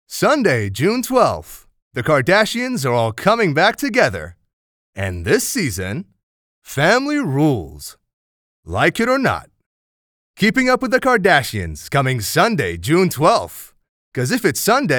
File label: Promo